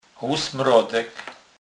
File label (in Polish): "lusmrodek", kurpiow